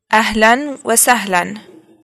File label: greeting hi, arabic